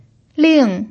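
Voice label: "ling", fourth tone, Mandarin Chinese pinyin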